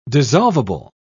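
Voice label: "dissolvable", British English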